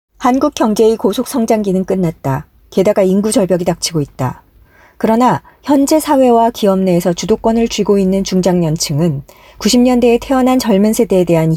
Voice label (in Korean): Korean Voice; Female